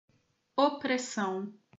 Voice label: "opressão", portuguese

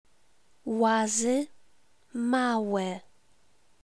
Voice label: lazy male

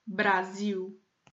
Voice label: "brasil", portuguese

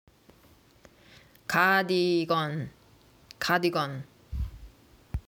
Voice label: "cardigun", Korean

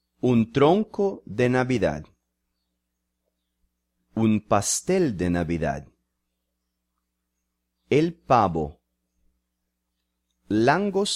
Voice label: spanish

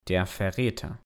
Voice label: "der Verrater" Normal, German

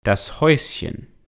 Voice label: "das Hausschen", German

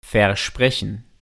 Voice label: "versprechen", German

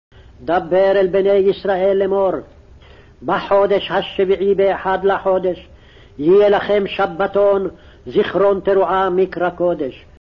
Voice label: Leviticus, hebrew

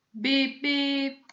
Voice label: "bii-bii", portuguese

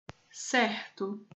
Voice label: "certo", portuguese

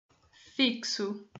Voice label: "fixo", portuguese

